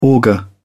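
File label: "auger", British English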